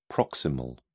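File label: "proximal", British English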